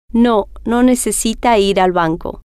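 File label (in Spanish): no Necesita Ir Banco, spanish, female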